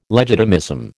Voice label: "legitimism", American